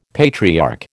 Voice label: "patriarch", American